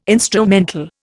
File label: "instrumental", Indian